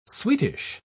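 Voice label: "sweetish", British English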